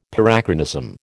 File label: "Parachronism", American